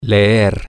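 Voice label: to read, Spanish, male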